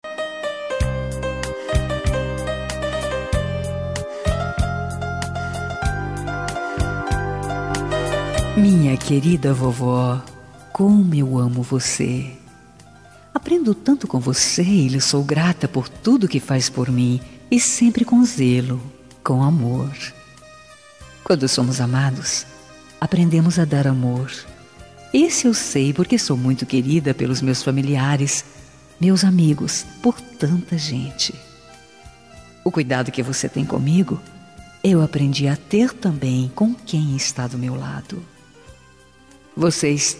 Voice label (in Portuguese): dia da avó, voz feminina; dia dos avos para avo voz feminina